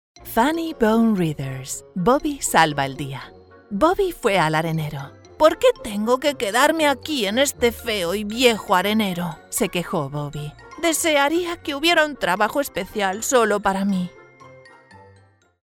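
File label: CASTILLIAN SPANISH FUNNY, Spanish Commercial Voiceover, Female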